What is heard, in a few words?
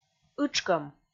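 "ытшкӧм", Komi, female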